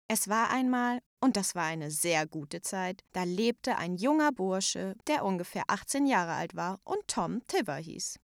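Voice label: german female narration; voice demos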